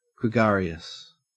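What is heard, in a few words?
"gregarious", Australian English; pronunciations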